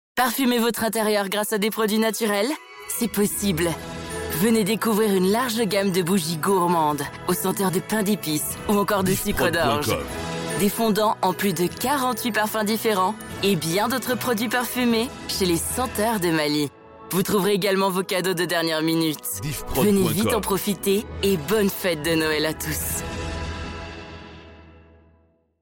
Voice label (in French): publicite; Les senteurs de Maly